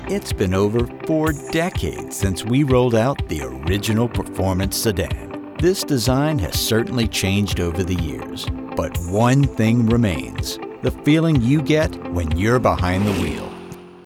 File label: english american automotive